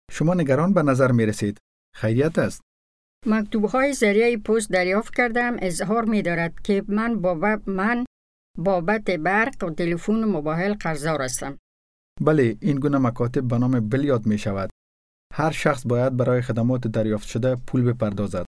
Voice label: paying bills dari